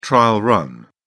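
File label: "trial run", British English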